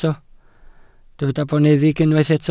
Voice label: "boneddig", welsh